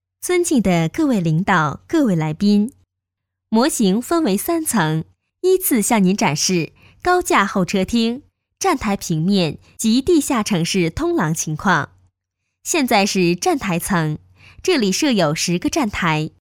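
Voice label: Chinese Mandarin, voiceovers other languages; foreign languages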